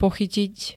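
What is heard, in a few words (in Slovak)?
"pochytiť", Slovak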